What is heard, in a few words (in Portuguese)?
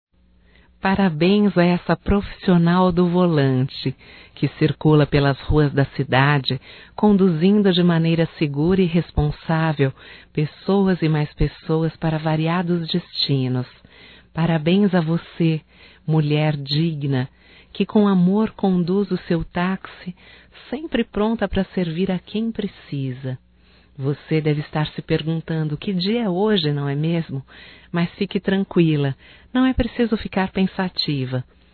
HOMENAGEM A MOTORISTA DE TAXI (F), Só Voz; Telemensagens; HOMENAGENS